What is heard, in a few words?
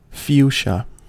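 "fuchsia", American English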